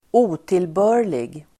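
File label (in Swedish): "otillbörlig", Swedish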